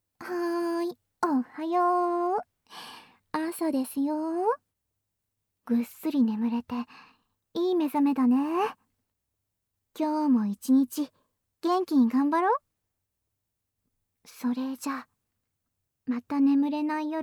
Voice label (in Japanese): 目覚ましボイス